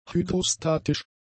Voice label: "hydrostatisch", German; adjectives